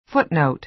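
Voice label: "footnote" American English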